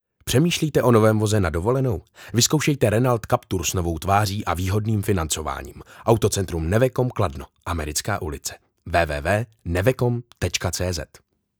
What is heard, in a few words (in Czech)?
Reklama; Renalt